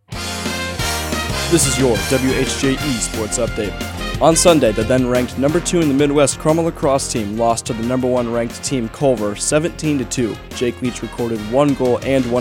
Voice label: Sportscast

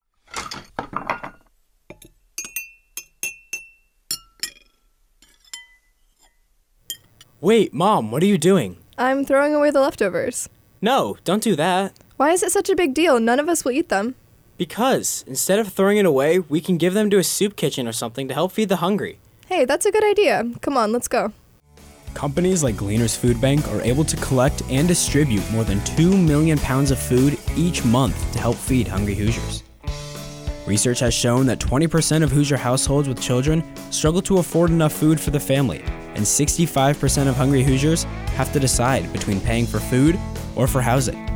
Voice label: PSA

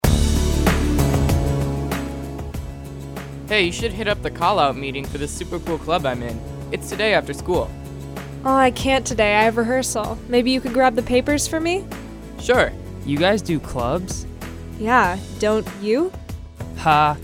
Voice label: PSA

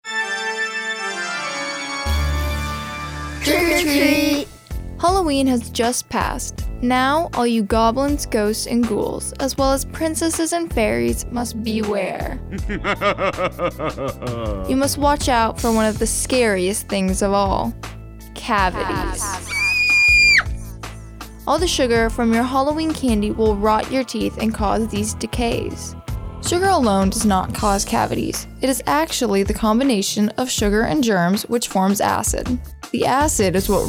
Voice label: PSA

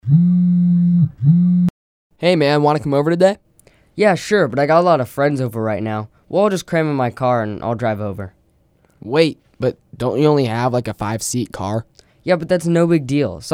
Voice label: Seatbelt PSA

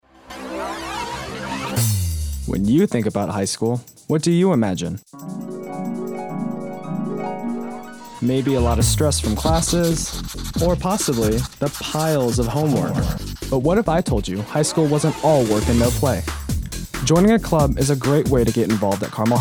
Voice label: PSA

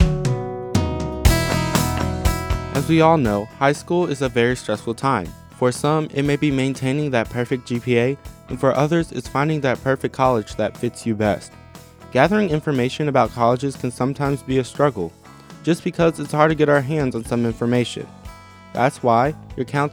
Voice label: PSA

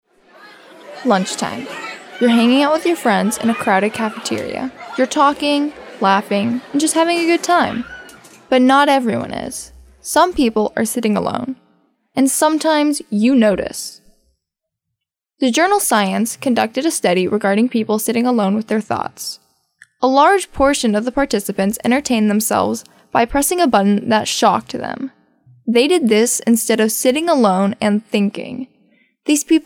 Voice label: PSA

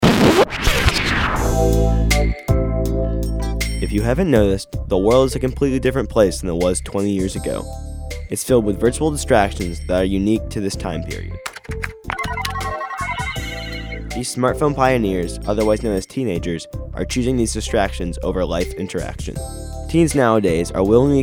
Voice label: PSA

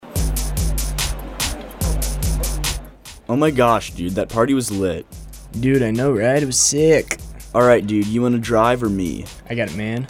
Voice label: PSA DRIVING

